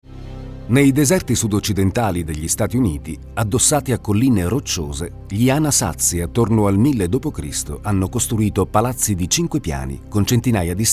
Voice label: narrations